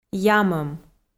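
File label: "ямӧм", Komi, female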